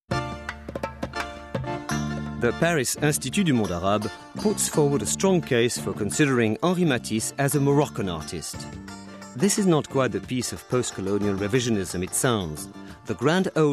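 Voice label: French English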